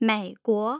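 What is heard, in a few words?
"meiguo", Mandarin Chinese, tone pairs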